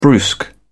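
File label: "brusque", English, British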